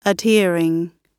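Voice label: "adhering", British English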